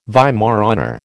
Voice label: "Weimaraner", American